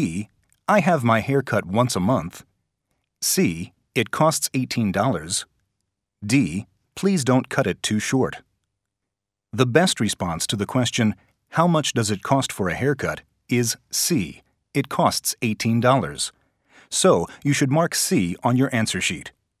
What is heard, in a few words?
English Test Listening